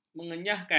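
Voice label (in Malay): "mengenyahkan", Malay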